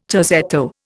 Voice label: "terzetto", Indian